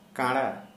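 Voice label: Santali male blind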